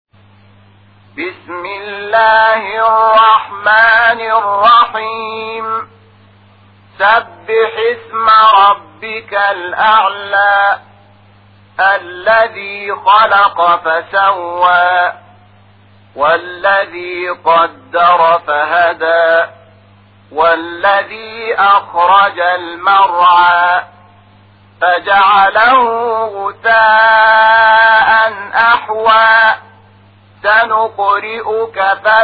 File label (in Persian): ترتیل قرآن کریم سوره اعلی; محمود شحات انور